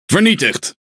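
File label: Speech Pilots Bomber Destroy, Dutch; World Of Warships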